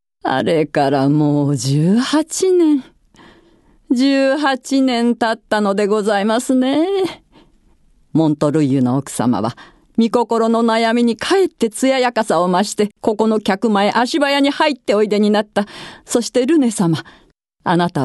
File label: female voice